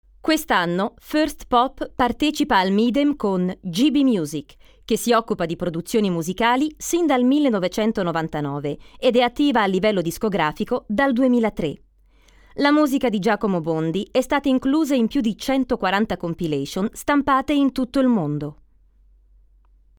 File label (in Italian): ITALIANO IMPOSTATA